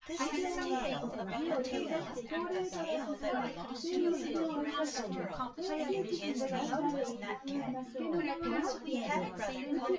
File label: female synthconv 250ms 5cm; SiSEC08; SiSEC underdetermined